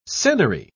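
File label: "senary", English, British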